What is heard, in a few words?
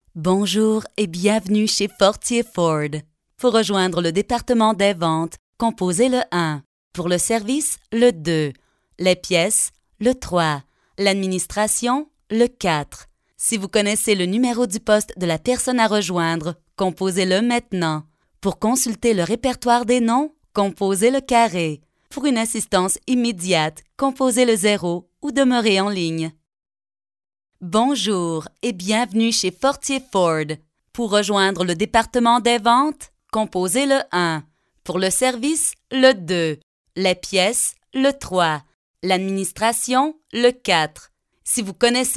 2 Different Styles; English (Australian)